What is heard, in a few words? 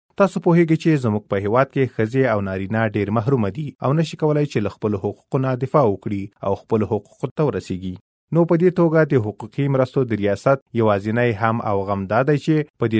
Pashto Male